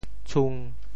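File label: "tshung1", Teochew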